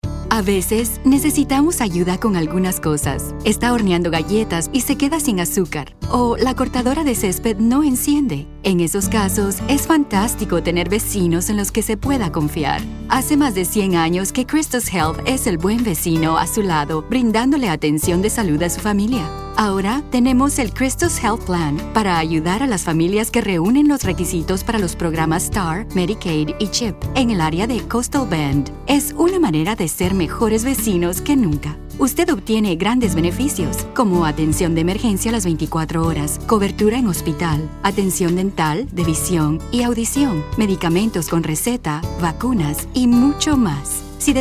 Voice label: spanish commercial; (I) Guatemalan Spanish Speaker. Classic and reassuring Spanish language voice.